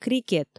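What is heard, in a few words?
"крикет", Komi, female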